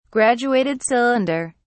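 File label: "graduated cylinder", English, British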